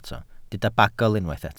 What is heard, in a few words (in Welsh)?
"bagl", Welsh